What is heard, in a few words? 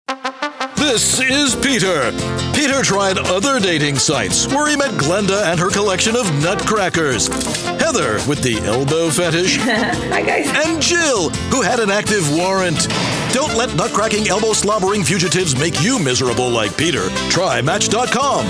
Male Announcer